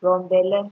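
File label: "run belle", Nigerian Pidgin, female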